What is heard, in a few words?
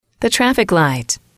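"traffic light", English, female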